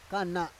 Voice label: "kana", Roon

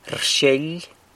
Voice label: "rxyeily", San Lucas Quiaviní; opens (something)

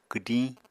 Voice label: "kwdiñ", Apatani

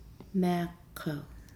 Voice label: "die", Nukuoro

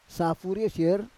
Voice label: "safur yosier", Roon

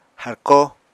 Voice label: "harkó", apatani

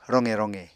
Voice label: "roqe roqe", apatani

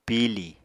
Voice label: "pili", apatani; Material Culture

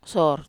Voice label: "sor", Wamesa

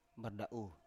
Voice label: "barda7u", Biak

